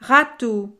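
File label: "rateau", French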